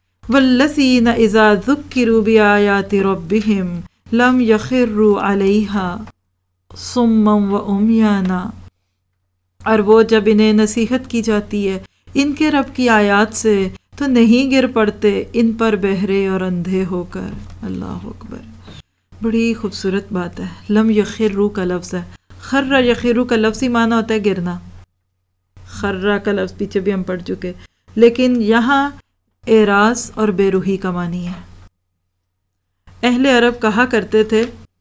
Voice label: Al Furqan 73-77 (little background noise on some places